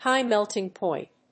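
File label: "HIGH-MELTING POINT", English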